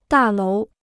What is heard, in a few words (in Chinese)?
"大楼", Chinese